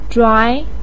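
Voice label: "zhuai" second tone, Mandarin Chinese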